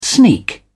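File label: "sneak", British English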